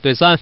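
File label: Man, pair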